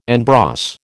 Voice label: "en brosse", American